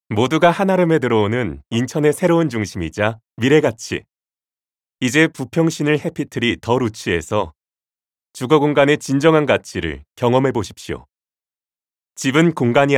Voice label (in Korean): 분양광고